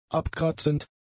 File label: "abkratzend", German; adjectives